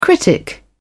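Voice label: "critic", British English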